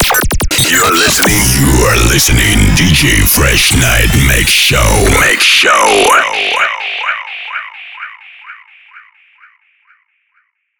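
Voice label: DJ jingle, announcer; Фрэшнайт Микс Шоу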